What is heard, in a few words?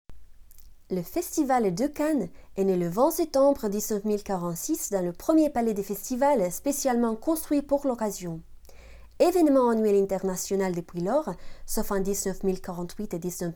French